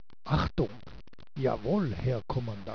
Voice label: german